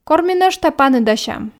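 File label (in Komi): кор ми нӧшта паныдасям, Komi, female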